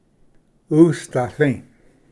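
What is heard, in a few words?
"usdatli", Cherokee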